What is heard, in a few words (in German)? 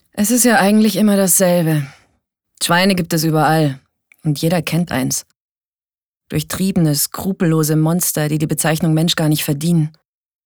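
acting, Cool; Schweine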